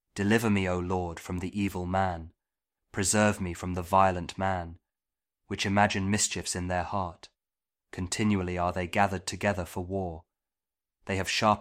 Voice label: psalm kjv king james audio bible; psalm 140